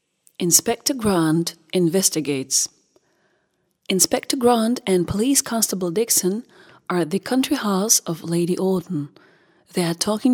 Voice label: english